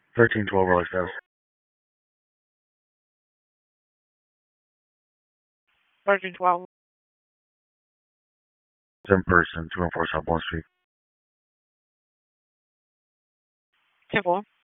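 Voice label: Police Southeast District Dispatch; Raleigh; wake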